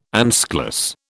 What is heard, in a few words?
"anschluss", British